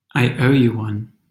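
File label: "i owe you one", English pronunciation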